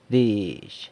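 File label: "dizh", San Lucas Quiavini Zapotec; language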